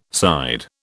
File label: "side", British